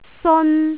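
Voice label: "seon1", Cantonese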